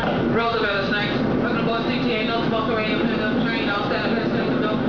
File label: announce